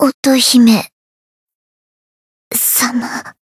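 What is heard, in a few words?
Voice Japanese; nagi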